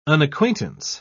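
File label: "unacquaintance", British English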